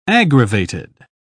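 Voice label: "aggravated", British English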